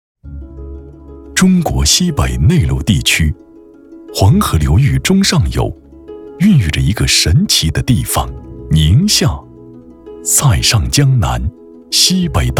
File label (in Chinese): 男 地理产品类 优雅 大气 稳重; 宁夏葡萄酒